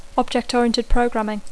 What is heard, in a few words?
object oriented programming, English; Dacco